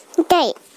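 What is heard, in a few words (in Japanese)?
"itai" Japanese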